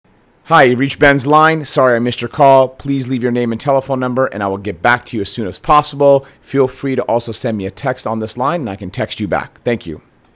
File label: ivr greeting